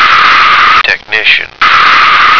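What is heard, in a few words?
"technician", American English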